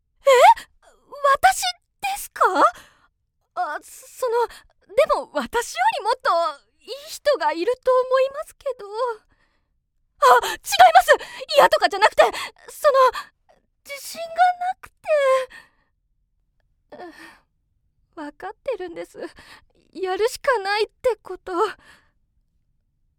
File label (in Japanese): 内気な女性(大人 内気)